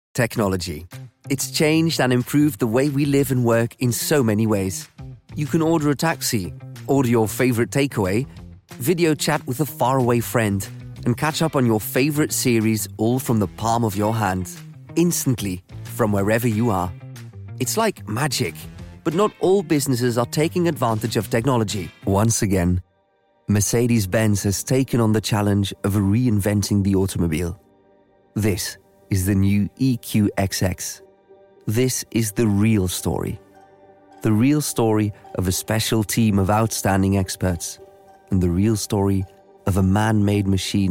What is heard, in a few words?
uk eng corporate; corporate reel